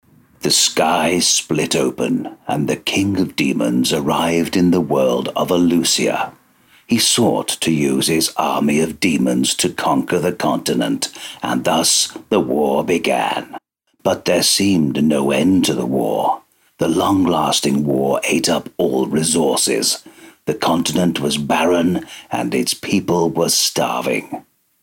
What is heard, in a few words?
British Voiceover Audition Video Game Promo Male 40-50